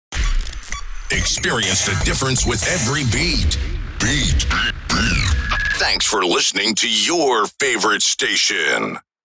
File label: Male radio voice dj sweeper experience the difference; broadcasting